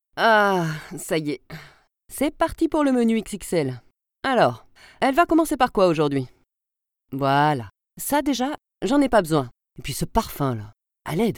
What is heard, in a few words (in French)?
Monologue